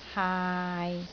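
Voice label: "haai6", Cantonese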